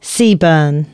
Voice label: announcements, female